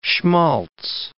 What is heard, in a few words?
"schmaltz", English